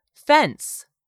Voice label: "FENCE", English; 3000 words